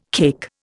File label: "cake", Indian